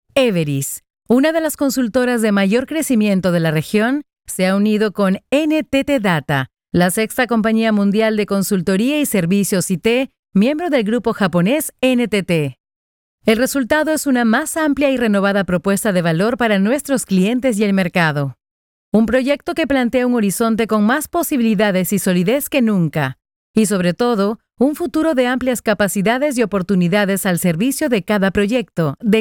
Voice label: Informative